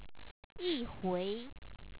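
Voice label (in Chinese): "yihui", Chinese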